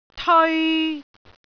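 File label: "teoi3", Cantonese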